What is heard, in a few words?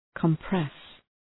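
"compress", English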